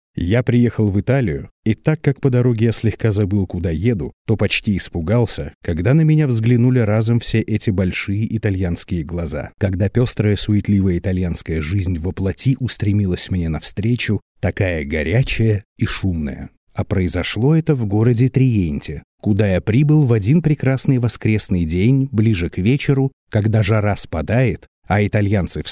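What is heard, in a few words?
zg rasskazchik Rus, Russian narrator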